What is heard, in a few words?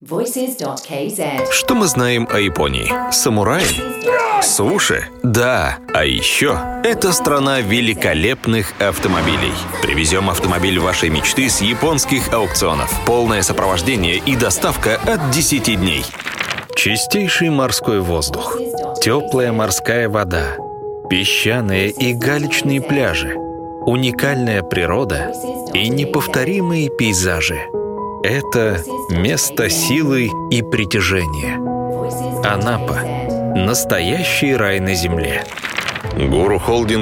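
Russian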